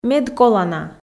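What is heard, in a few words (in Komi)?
"медколана", Komi, female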